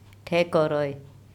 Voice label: "Thekoroy", Andamanese; my left hand

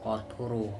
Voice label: tuna fish kOtHOrO, Andamanese

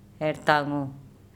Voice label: "Ertango" sideburns, andamanese

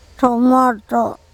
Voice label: "THomOTo", andamanese; my legs

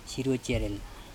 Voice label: "SirocErel", andamanese; sea colour

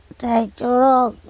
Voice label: my knee THEcorOk, andamanese

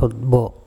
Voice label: "otbO", andamanese; back

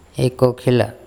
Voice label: blunt ekokhela, andamanese

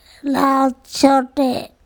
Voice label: bird, a kind of-laotSoTE, Andamanese

